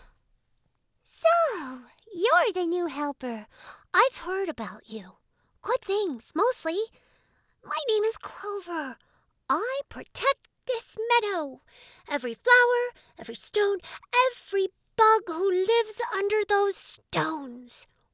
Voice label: Small creature game guide, sweet excitable, caring